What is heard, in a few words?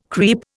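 "creep", Indian English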